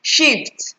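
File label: "shift", Nigerian Pidgin, female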